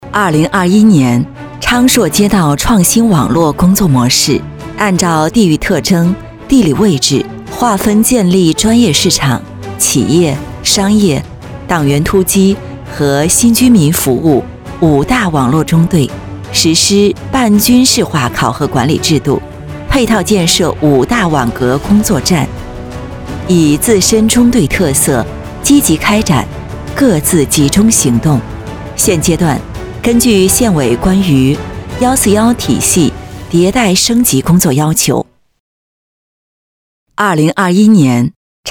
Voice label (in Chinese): 女 专题; 昌硕